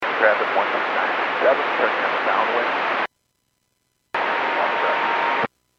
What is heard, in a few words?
Aviation 122.7; KSTE